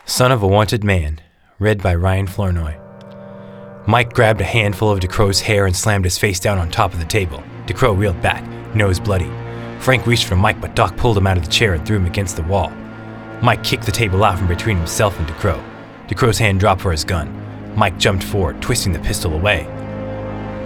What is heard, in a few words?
Narration Book Reading; Son of a Wanted Man